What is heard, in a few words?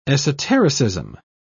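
"esotericism", British English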